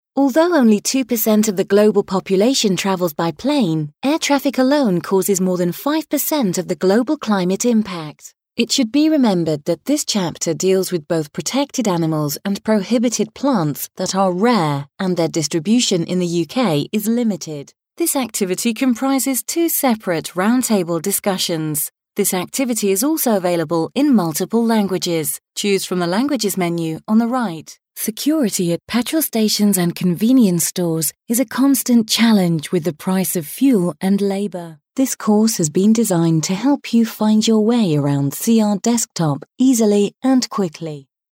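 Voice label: British English elearning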